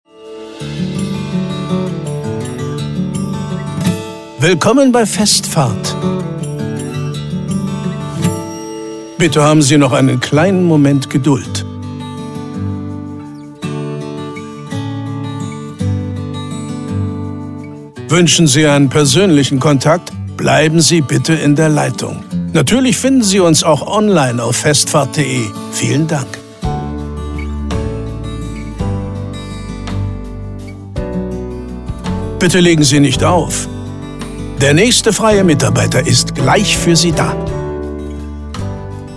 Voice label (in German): telefonansagen sprecher; dennis hopper